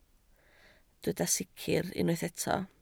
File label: "sicr", Welsh